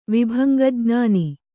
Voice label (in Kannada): "ವಿಭಂಗಜ್ಞಾನ", kannada